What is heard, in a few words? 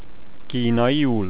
"chinese", hungarian